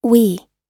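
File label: "wee", American English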